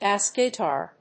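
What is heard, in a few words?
"bass guitar", English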